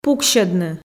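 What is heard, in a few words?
"пуксьӧдны", Komi, female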